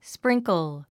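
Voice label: "sprinkle", 英単語 発音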